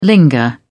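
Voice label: "linger", British English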